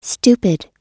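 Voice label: "stupid", American English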